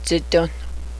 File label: icecream, cheyenne